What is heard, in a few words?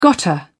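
"gotta", English, British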